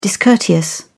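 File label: "discourteous", English, British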